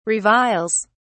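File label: "reviles", British English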